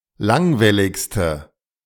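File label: "langwelligste", German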